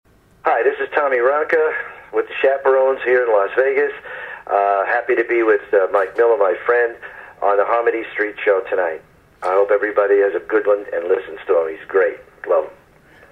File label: promo